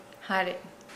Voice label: Nukuoro; house; Nukuoro Talking Dictionary